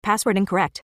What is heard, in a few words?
Password Incorrect, en; OpenCore; EFI